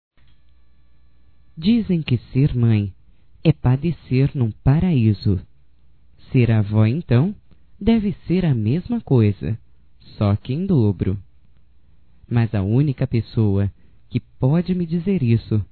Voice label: DIA DAS MÃES AVÓ DISTANTE - F; Telemensagens 2017

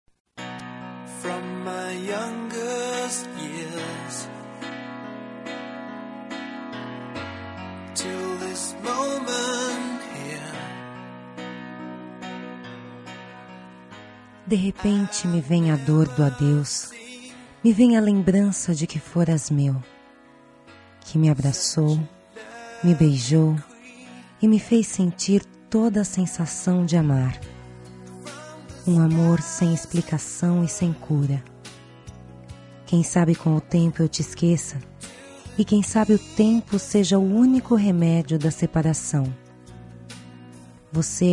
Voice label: voz feminina; Reconciliação; Perdão

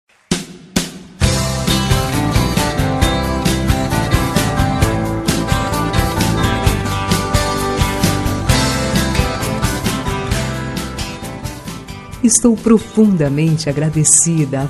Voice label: Telemensagem de Agradecimento de Amizade Feminina

